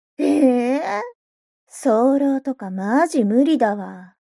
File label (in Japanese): mob 女子 台詞